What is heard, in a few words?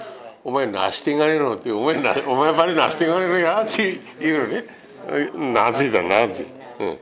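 nashite, Minamiyamagata dialect Japanese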